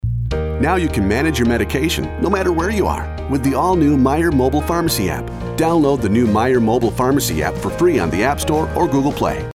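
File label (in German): US English Freundlich; Fremdtake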